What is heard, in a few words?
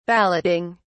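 "balloting", British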